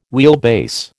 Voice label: "wheelbase", American